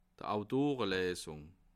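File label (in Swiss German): "autorelesung", Bas Rhin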